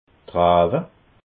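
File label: "trawwe", Bas Rhin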